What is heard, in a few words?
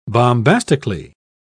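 "bombastically", British English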